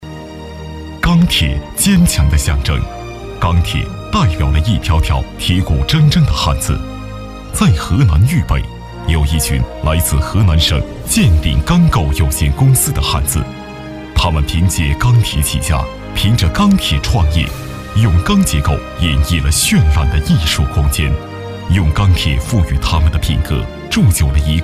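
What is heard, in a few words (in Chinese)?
【专题】男; 钢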